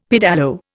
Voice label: "pedalo", Indian; Dictionary